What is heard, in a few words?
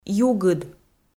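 "югыд", Komi, female; kpv audio dict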